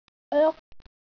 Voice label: "oek" Cantonese jyutping